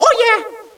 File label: oh yeah, Echo inside caves, underground; DS; ML BOWSERSINSIDE; Mario